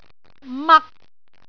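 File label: "mak3", Cantonese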